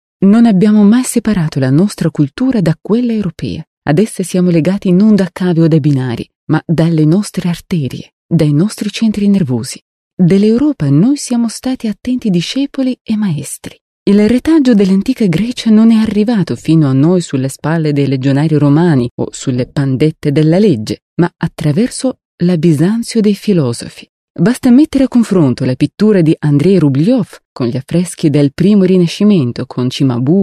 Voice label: italian female